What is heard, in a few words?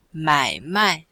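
"mai mai", Chinese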